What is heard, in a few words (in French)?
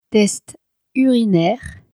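"test urinaire", French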